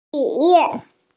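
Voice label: "ti3 yu4", Mandarin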